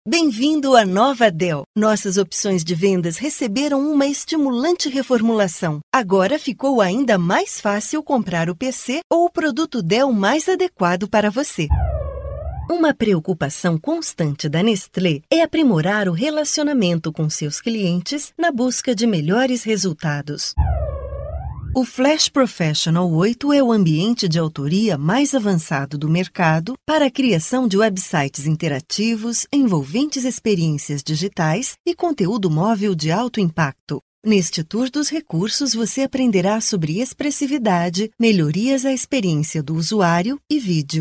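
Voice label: Brazilian Portuguese General; GoLocalise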